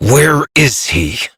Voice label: Battle Adult; Warframe; Duviri Quest; Duviri Paradox Quest